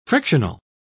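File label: "frictional", British English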